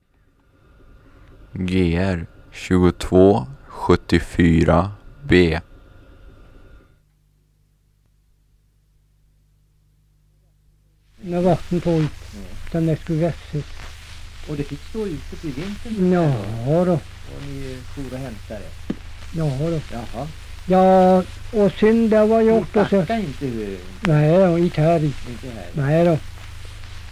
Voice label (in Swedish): Hede, dialekter; Svenska sagor; Folkminnen